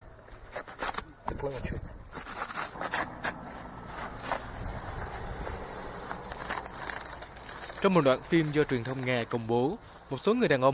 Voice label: vietnamese; SBS Vietnamese; Nga buộc tội Ukraine phá hoại có tổ chức ở Crimea

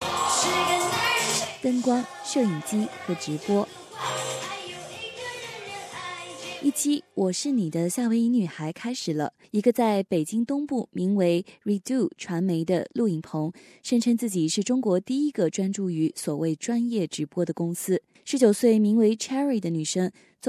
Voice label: mandarin; 你也能成网红——走进中国的网络直播产业; Live streaming a road to fame in China; SBS 普通话电台

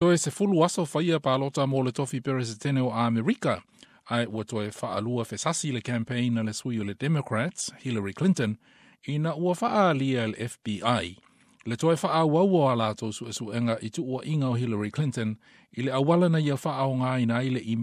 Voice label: samoan; SBS Samoan; Faaauau suesuega a le FBI i imeli a Hilary Clinton

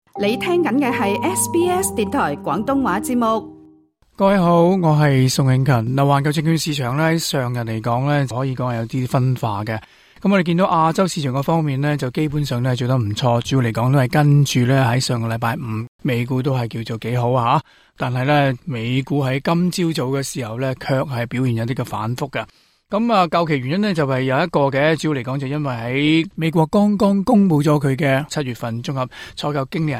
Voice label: cantonese; 亞太表現中規中矩 美股反覆下滑; SBS Chinese; global finance

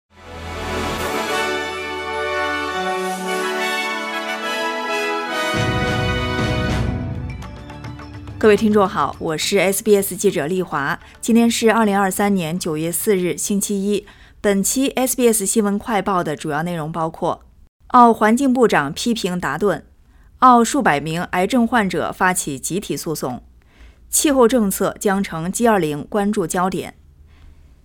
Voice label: mandarin newsflash; 联邦环境部长批评达顿; SBS Chinese; SBS新闻快报

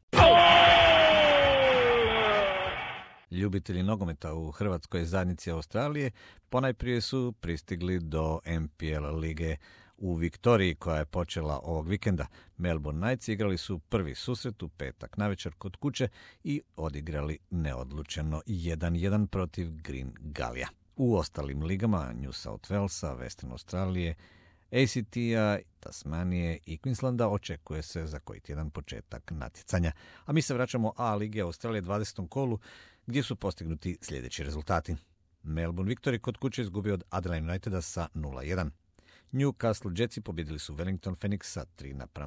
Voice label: croatian; A-League Australia round 20

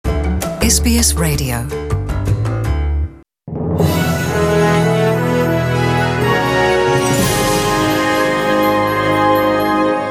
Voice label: korean; SBS Korean; SBS 한국어 뉴스 간추린 주요 소식 – 8월 22일 목요일

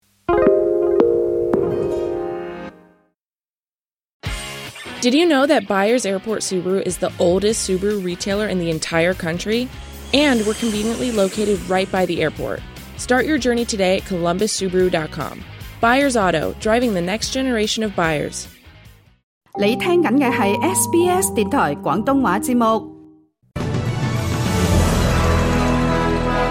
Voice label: cantonese, SBS晚間新聞; nightly news

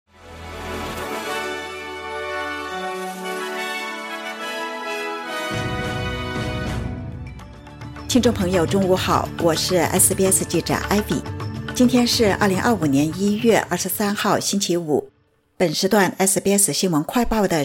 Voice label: mandarin news flash; 新州枪击案三死一伤 警方发出通缉令搜捕嫌犯; SBS Chinese; SBS新闻快报

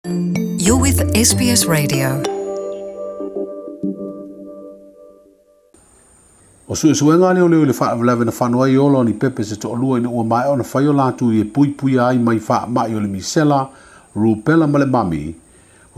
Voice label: samoan; SBS Samoan; Molia seisi tama'ita'i tausima'i i tui puipuia na feoti ai pepe i Savaii

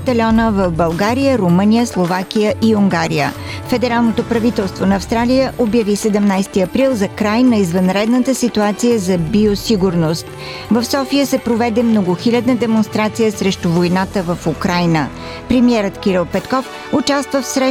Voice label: bulgarian, Радио SBS новини на български език; Новини на български – 25-ти март 2022

